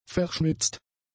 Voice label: "verschmitzt", German; adjectives